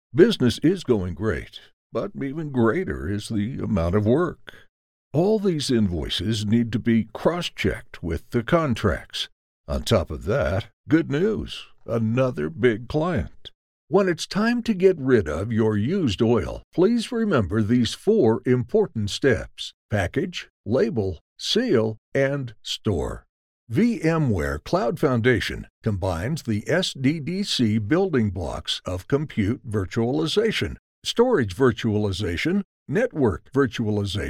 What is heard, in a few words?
english american explainer videos